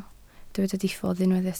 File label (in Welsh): "diffodd", Welsh